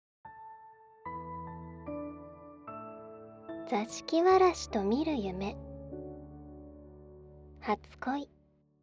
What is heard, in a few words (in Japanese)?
タイトルコール, ASMR